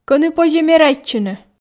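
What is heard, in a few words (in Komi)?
Komi, кӧні позьӧ мерайтчыны, female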